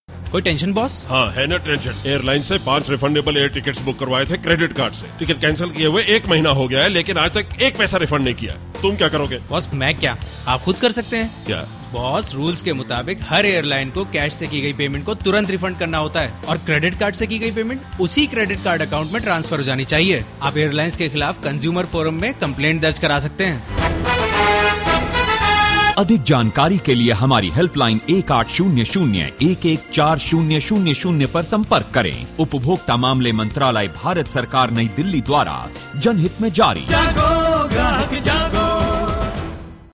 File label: Angry boss Hindi